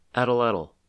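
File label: "atlatl", US English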